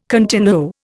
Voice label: "continuo", Indian

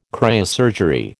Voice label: "cryosurgery", American

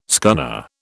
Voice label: "scunner", British

